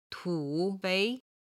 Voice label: "tu3 fei2", Chinese